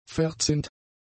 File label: "verzinnt", German; adjectives